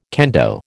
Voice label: "kendo", American